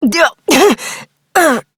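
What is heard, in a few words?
Voice, English; New War; Operator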